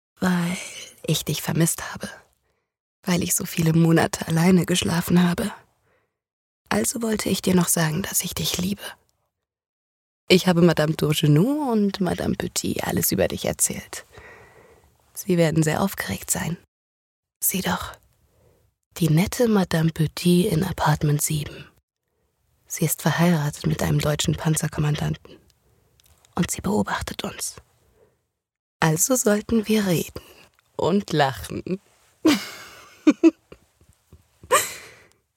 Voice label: Synchron sanft

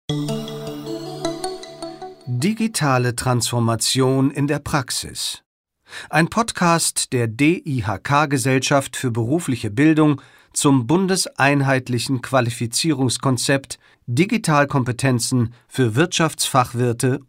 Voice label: E-learning Digitale Transformation

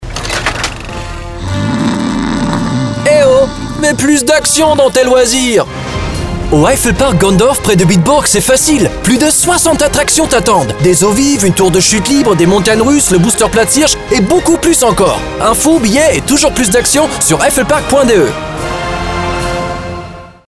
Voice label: Werbung fr szenisch off aufgeregt begeistert; Eifelpark

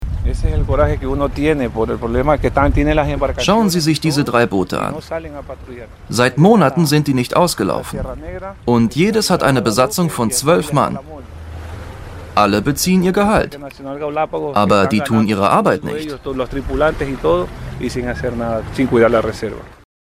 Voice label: Voiceover Fischer sarkastisch; WDR5 Quarks; LOFT VOICES

